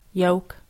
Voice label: "Yoke", english, Ireland